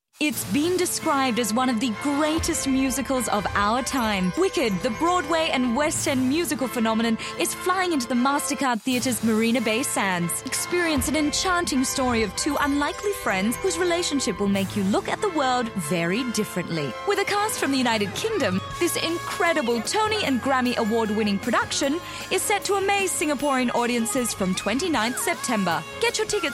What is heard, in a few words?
AU English, Australian English Female; Voice Reel